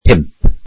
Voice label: welsh five